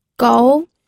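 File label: "gou", Mandarin Chinese, second tone; pinyin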